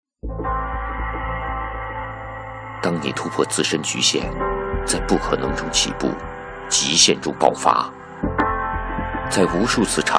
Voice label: 男 广告 品质感, 国语男声配音 记录风格; BMW 悦创奇迹

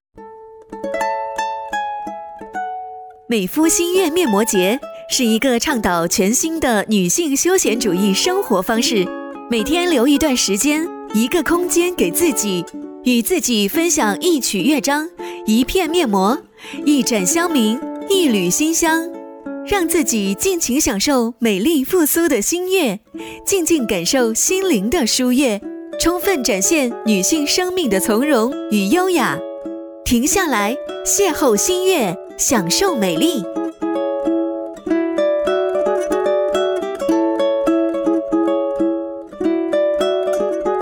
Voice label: 女 面膜广告; 美肤面膜节

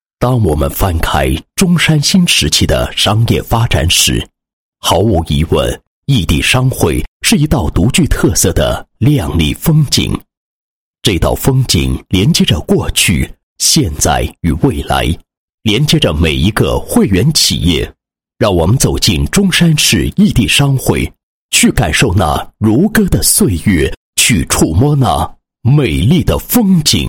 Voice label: 男 企业 异地商会建设宣传片配音稿

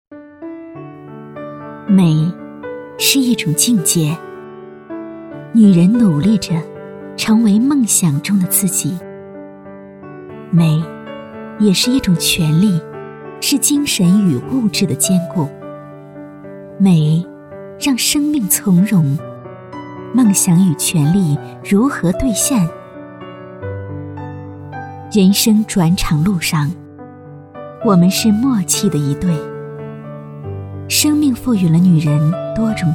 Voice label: 女 专题 美 是一种境界 温柔大气, 国语女声配音

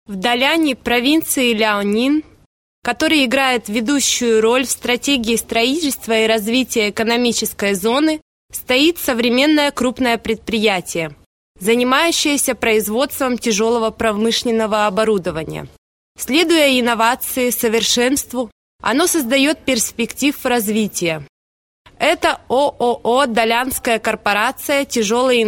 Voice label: 俄语女

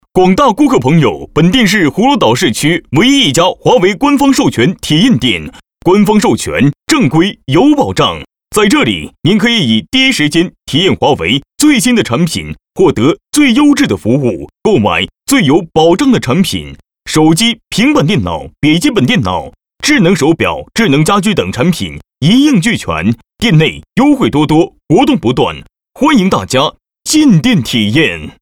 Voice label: 促销广告; 华为手机

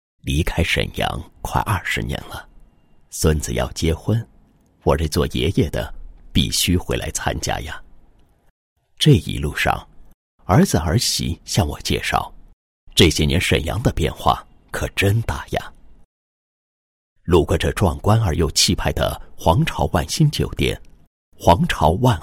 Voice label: 男 自白 宣传片 爷爷自白; 万鑫

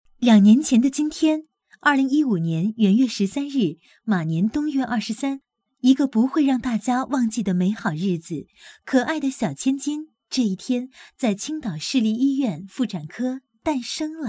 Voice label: 女, 独白; 宝贝两周岁介绍

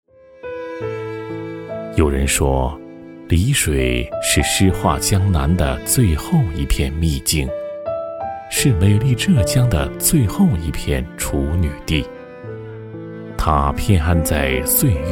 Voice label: 男, 风景宣传片, 温和舒缓; 丽水

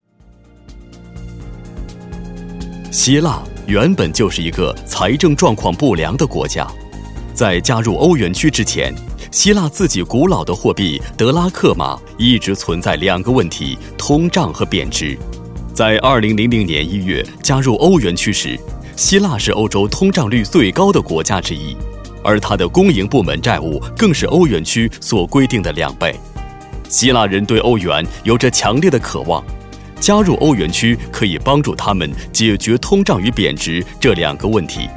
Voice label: 男 节目 希腊, 国语男声配音; 郎咸平说节目-希腊

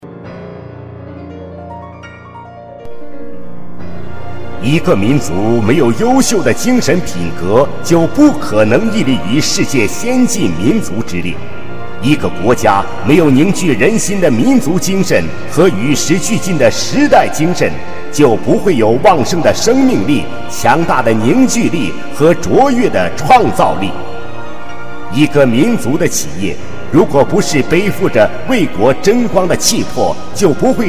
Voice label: 专题, 国语男声配音; 恒特重工; 红色专题

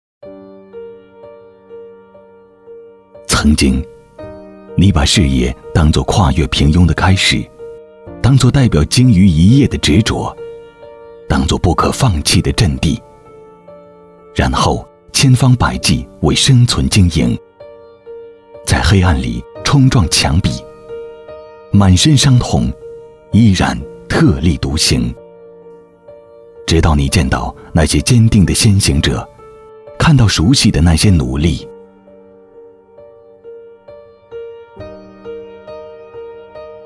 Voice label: 男 专题 微电影感觉

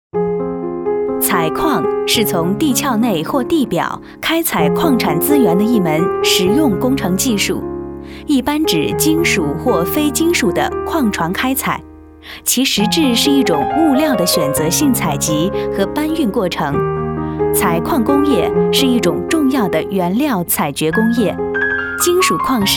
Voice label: 女 课件解说 采矿

